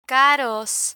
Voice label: "caros", Spanish; medical medicine vocabulary; learn spanish

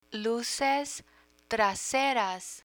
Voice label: "luces traseras", Spanish

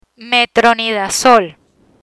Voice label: "Metronidazol", Spanish; medical spanish dermatology medicines; learn spanish

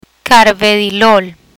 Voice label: "Carvedilol", Spanish; hypertension therapy; learn spanish

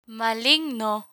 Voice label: "maligno", Spanish; medical medicine vocabulary; learn spanish

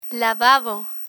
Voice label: "lavabo", Spanish

